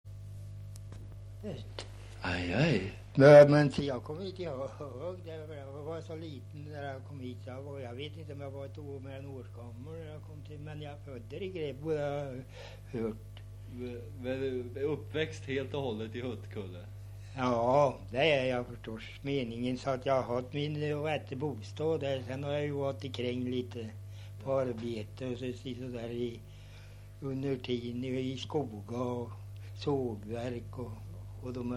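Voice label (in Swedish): Bankekind Östergötland, Svenska sagor, dialekter; Folkminnen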